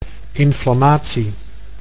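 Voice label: "inflammatie", Dutch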